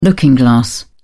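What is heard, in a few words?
"looking glass", British English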